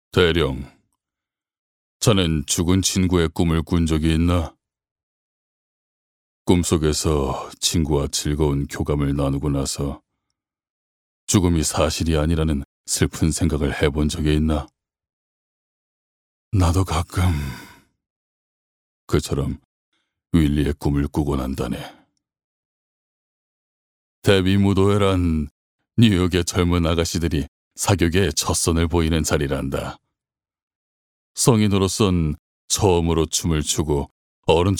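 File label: Korean, male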